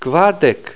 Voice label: "kvardek", esperanto